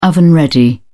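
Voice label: "oven-ready", British English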